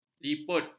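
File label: "liput", Malay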